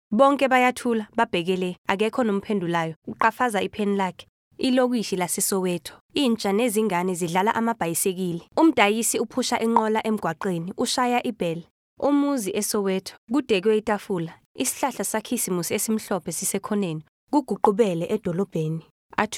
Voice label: ADR; NETFLIX; YOH CHRISTMAS